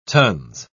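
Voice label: "tons", British English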